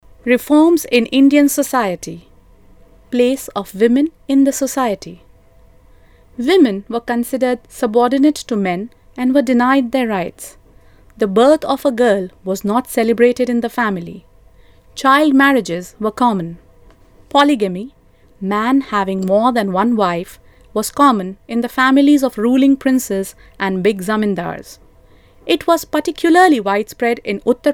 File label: E LEARNING eng